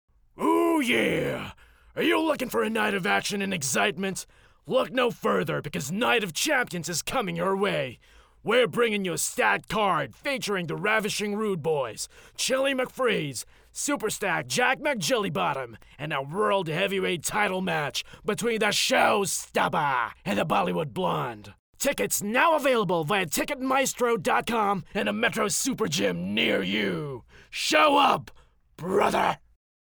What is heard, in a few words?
English, character